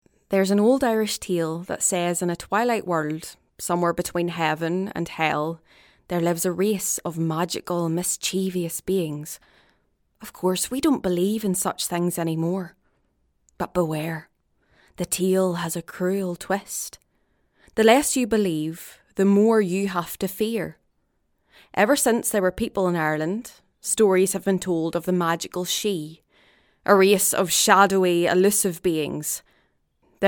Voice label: Documentary, 20s